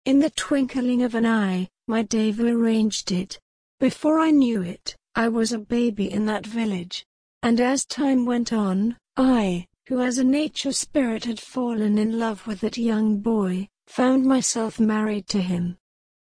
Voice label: engl british rate slow pitch high; tom of forest; sophie